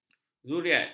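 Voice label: "zuriat", Malay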